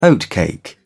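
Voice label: "oatcake", English, British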